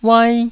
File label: "uai1", taiwanese